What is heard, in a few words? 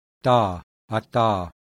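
alveolar plosive, voiceless; IPA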